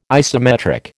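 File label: "isometric", American English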